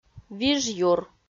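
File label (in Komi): "вижъюр", Komi, female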